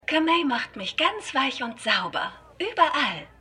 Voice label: Frau In Werbespot; Winning Time

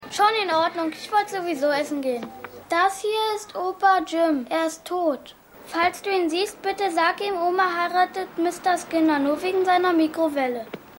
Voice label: Junge; AusgerechnetAlaska

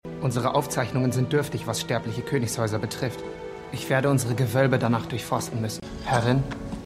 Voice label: Elbischer Meister Der Lehren, Stimmproben; DER HERR DER RINGE: DIE RINGE DER MACHT; The Lord of the Rings

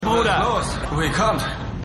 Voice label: Mann; Rescue Me

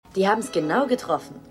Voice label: Executive, Stimmproben; Nike Executive; Was Frauen wollen

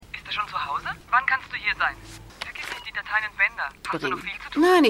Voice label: Frau Telefon; Outer Limits

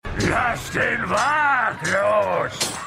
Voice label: Orc, Stimmproben

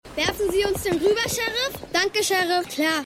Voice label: Junge; Eureka

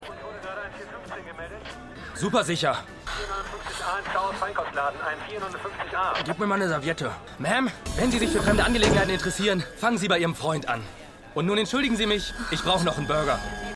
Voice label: Funk Polizist, Stimmproben

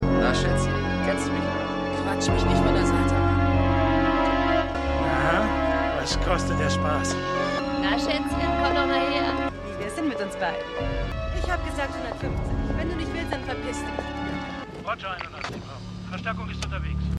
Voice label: Div Freier Und Prostituierte Funkstimme; Outer Limits

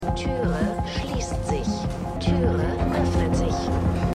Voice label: Aufzugstimme; Suspicion

